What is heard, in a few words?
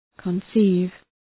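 "conceive", English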